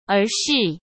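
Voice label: "而是", Mandarin Chinese; hsk; chinese-flashcards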